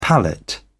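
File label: "palette", British English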